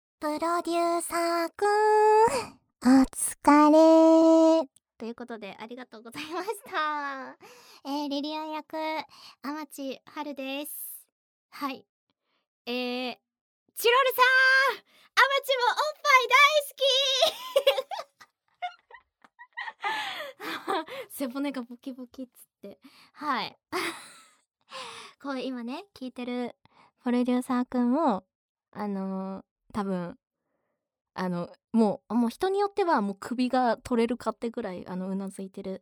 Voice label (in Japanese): フリートーク